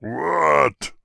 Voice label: Angry; warcraft3; Peon